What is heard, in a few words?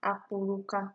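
"akpuruka", Nigerian Pidgin, female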